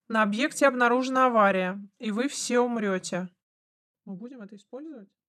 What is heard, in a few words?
announcer lights out; ISB Special Forces